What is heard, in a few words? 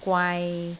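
"gwai6", Cantonese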